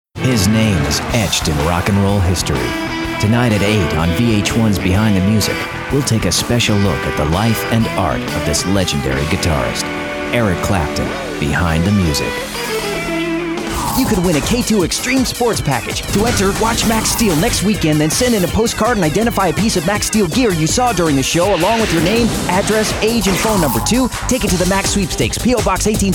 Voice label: Male, promos